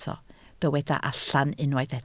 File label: "allan", Welsh